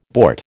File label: "bort", American